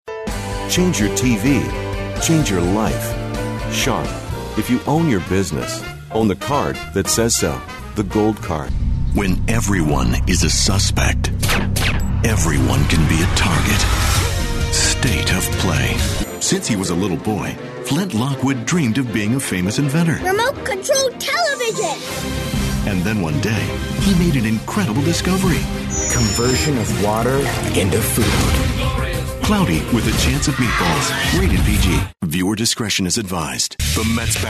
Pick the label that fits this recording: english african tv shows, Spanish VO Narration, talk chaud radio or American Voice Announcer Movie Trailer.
American Voice Announcer Movie Trailer